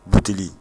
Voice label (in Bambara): "buteli", Bambara